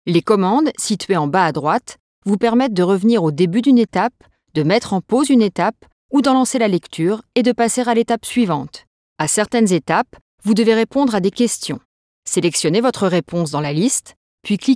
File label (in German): Sprecherin Französisch; Nachvertonung Film